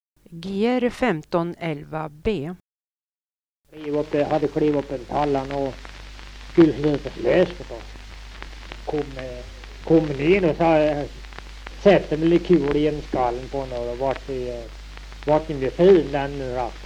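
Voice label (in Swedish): Tuna Medelpad, dialekter; Folkminnen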